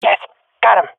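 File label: i killed enemy, swat female; Counter Strike Model Pack; CS:GO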